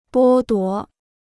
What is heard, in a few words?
"剥夺", Chinese